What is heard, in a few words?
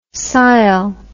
"sile", words